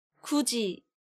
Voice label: "Guji", Korean

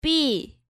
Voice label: "Ppi", Korean

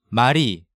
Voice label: "Mari", Korean

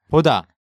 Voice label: "Boda", Korean

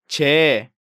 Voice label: "Jyae", Korean

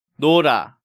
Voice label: "Nora", Korean

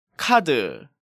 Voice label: "Card", Korean

